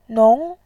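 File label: "nong", Mandarin, tone 2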